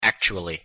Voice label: "actually", pronunciation en